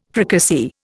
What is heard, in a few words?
"fricassee", Indian